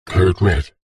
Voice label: science fiction computer console voice robotic male says code red